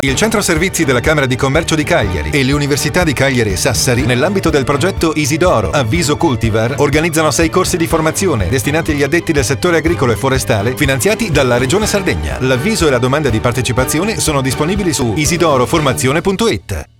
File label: spot radio